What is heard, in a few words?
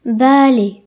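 "walk", Breton; gcompris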